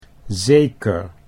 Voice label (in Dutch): "zeker", Dutch